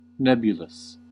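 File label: "nebulous", English, American accent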